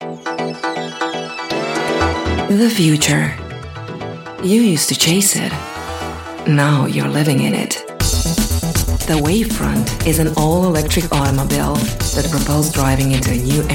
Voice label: english american accent